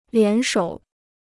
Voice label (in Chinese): "联手", Chinese